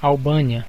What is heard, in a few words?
"albania", pt_BR; gcompris; geography